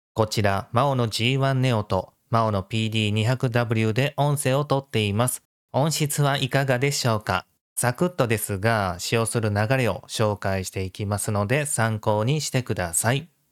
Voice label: maono g1 neo